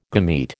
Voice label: "gamete", American

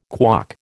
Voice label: "KWOC", American

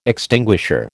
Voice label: "extinguisher", American

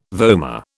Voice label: "vomer", British

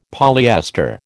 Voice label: "polyester", American

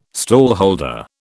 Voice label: "stallholder", British

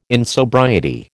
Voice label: "insobriety", American

